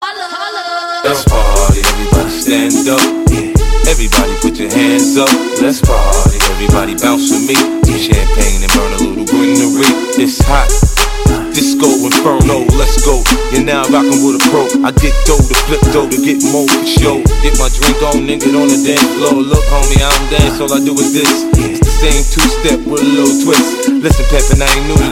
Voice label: Is Calling RNB